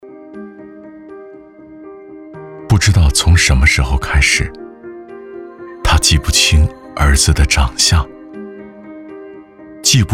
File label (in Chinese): 男, 走心类-感动风格